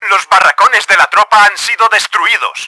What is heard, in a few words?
lost barracks, Spanish; Battle Engine Aquila; XBOX